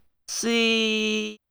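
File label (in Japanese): "すぃ", Japanese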